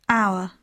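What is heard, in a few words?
"our", English; poitiers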